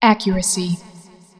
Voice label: "accuracy", female; feedback

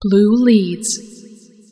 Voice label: "blue leads", female; feedback

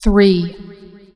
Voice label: "three", female; feedback

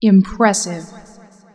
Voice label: "impressive", female; feedback

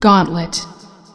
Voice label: "gauntlet", female; feedback

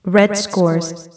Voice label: red scores, female; teamplay

